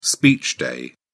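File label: "speech day", English, British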